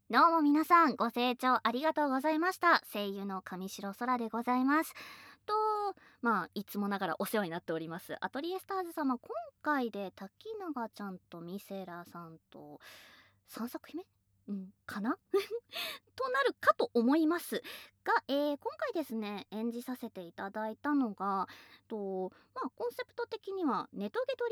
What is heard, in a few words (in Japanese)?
フリートーク; 【誘惑手籠めイチャラブ】ネトゲで出会った女の子とリアルでいいカンジになるやつ。～新米シーフに誘惑され、いいようにされつつもリアルでイチャラブあまあまえっち生活～